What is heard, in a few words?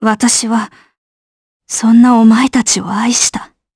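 voice Dia, voices heroes, Japanese; Valance; kingsraid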